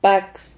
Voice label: "pax", Silabas

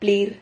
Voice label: "plir", Silabas

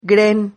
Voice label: "gren", Silabas

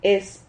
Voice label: "hes", Silabas

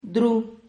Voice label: "dru", Silabas

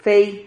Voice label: "fei", Silabas

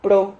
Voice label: "pro", Silabas

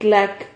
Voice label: "clac", Silabas

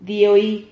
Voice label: "dioi", Silabas

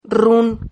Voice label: "rrun", silabas

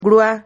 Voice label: "grua", Silabas

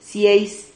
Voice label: "cieis", Silabas

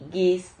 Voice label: "guis", Silabas

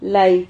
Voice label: "lai", Silabas

